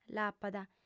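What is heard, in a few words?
"l'apat", female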